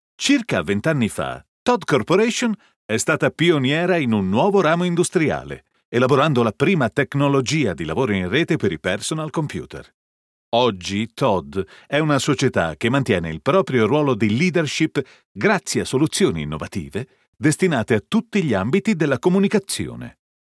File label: italian male corporate